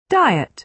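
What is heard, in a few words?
"diet", British English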